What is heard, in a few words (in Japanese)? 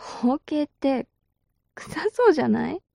mob女子台詞